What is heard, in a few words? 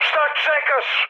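Serbian attack position mood high; speech; War Thunder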